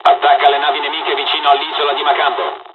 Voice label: attack, dialogs italian; War Thunder